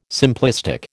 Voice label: "simplistic", American